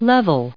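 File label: "level", US English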